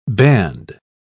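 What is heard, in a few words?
"banned", British English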